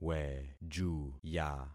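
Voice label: "wejuya", concatenated syllables; Affixing experiment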